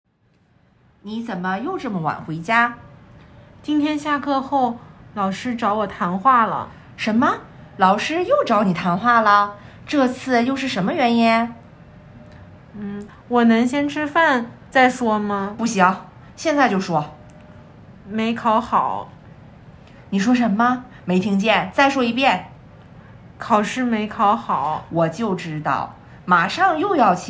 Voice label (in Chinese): 会话; 再-又会话